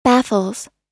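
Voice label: "baffles", American English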